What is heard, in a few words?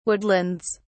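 "woodlands", British English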